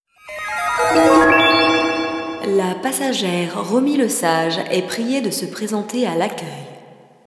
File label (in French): Voix aéroport